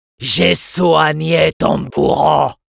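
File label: Medic taunts, French; Robot Medic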